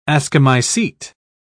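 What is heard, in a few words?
"ascomycete", British English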